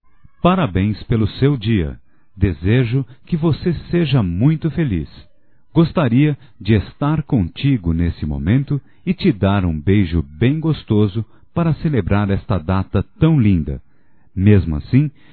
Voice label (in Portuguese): Aniversário Netro Masculino; Aniversarios; Telemensagens 2017